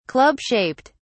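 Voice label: "club shaped", English, British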